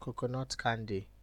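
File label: "coconut candy", Nigerian Pidgin, male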